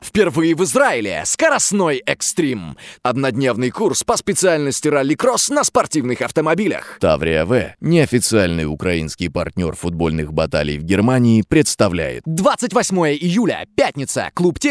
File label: russian